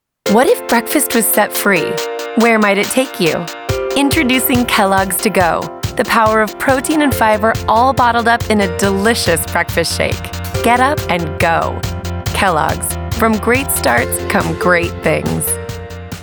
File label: commercial; commercial women